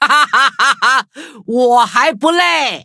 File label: touch, zh-hant; cookie run